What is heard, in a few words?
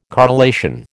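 "correlation", American English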